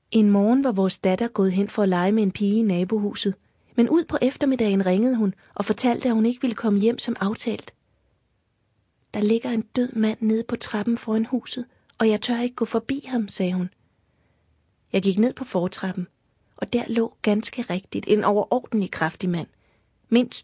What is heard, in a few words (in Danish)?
speak indlæsning